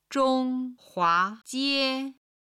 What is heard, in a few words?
"zhong1hua2jie1", chinese